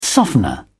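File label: "softener", British English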